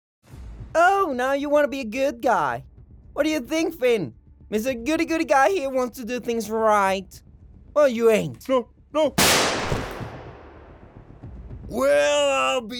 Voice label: character sample